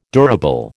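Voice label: "durable", American English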